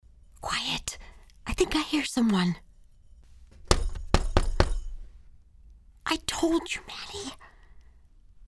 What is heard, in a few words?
Scared Voice